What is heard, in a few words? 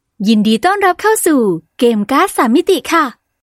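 Thai Welcome Message Female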